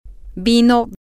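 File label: wine, Spanish, female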